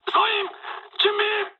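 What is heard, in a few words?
near waypoint mood med, speech japanese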